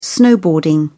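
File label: "snowboarding", British English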